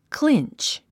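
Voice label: "clinch", English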